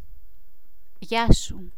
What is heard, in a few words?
"gia sou", greek